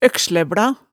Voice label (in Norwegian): "økslæbla", hemsedalsmaalet tale